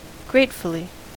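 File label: "gratefully", US English; pronunciations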